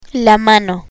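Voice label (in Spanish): "mano", Spanish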